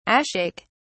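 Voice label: "ashake", English, British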